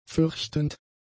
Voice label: "fürchtend", German; adjectives